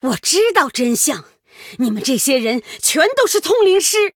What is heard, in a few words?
Female Human Start; Lilian; Archwitch Willow; 对战：高阶女巫维洛; 渗透者莉莉安